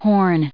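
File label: "horn", US English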